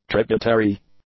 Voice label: "tributary", English; adjectives

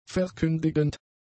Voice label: "verkündigend", German; adjectives

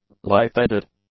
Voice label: "lightheaded", english; adjectives

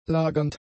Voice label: "lagernd", German; adjectives

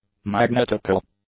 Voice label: "magnetical", English; adjectives

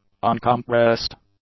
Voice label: "uncompressed", English; adjectives

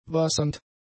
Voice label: "wassernd", German; adjectives

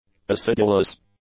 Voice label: "acidulous", English; adjectives

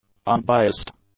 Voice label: "unbiased", English; adjectives

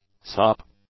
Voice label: "sop", english; nouns

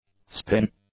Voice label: "spin", english; nouns

